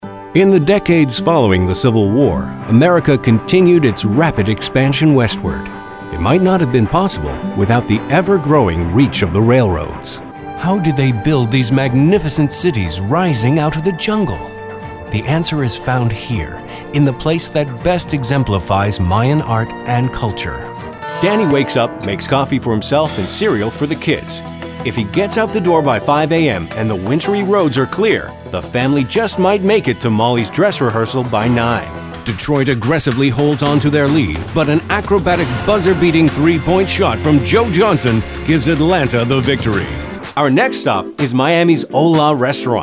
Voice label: Narration VO

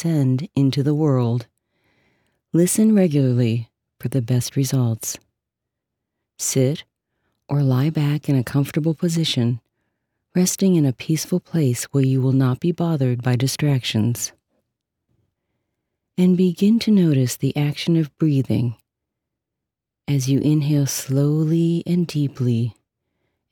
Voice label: Guided Meditation

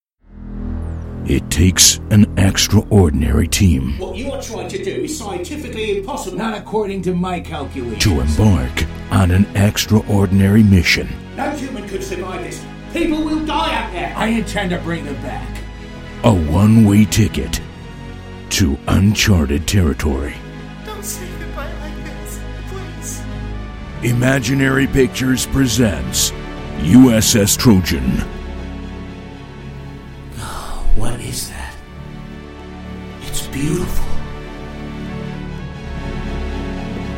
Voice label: movie trailer soundtrack audio mix

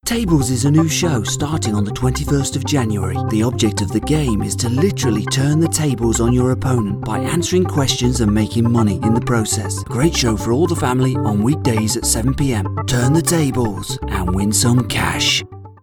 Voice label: TV Show Trailer; Tables

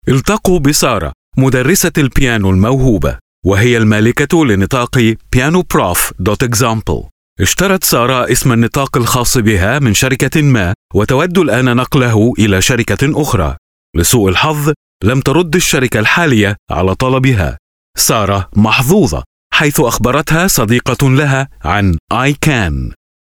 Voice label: COMPLIANCE VIDEO

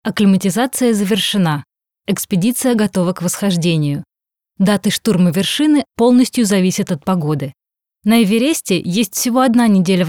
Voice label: RU Documentary; Expedition to Mt. Everest

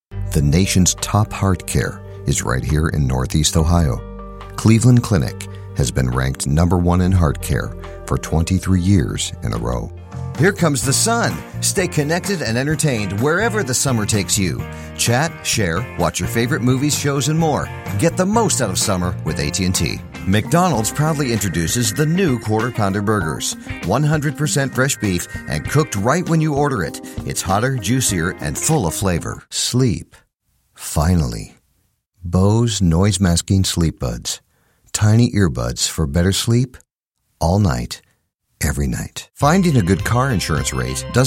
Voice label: Commerial

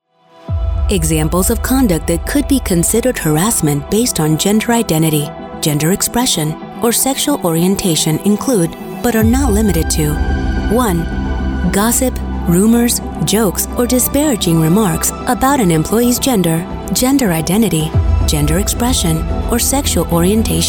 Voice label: English Corporate Industrial

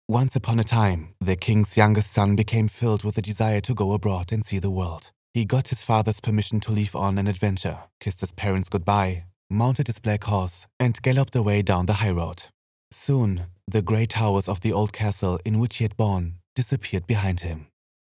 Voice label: english german accent

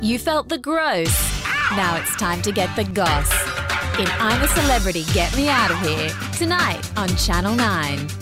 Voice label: TV Promo; Im A Celebrity

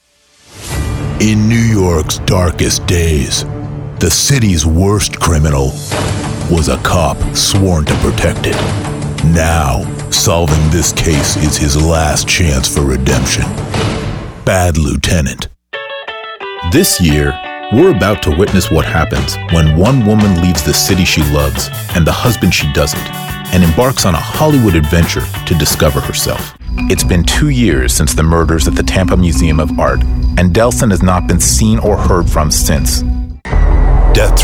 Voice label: Trailer

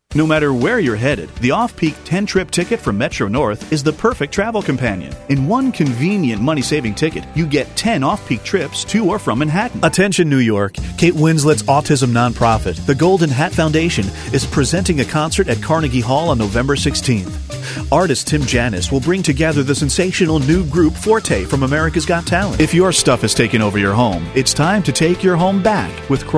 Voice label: Commercials

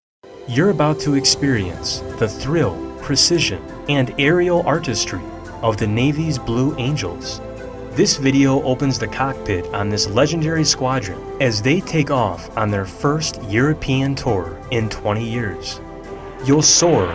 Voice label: Narration Montage

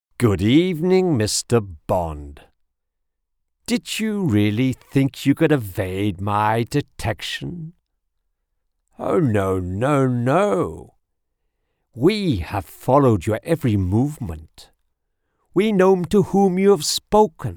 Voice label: Character, Cartoon, Villain, British Male Voice; Bond

